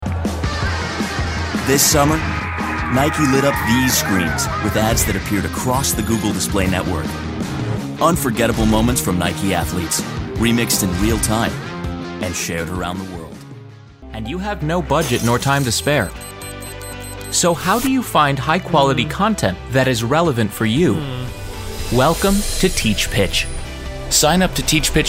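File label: Narration, en-NZ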